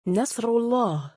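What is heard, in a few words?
"nas9rullaah", Arabic, male; given name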